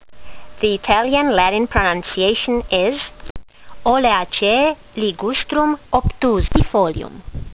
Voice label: latin; Ligustrum obtusifolium